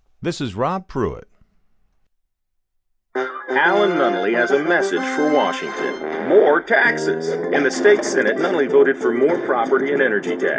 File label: Political Ads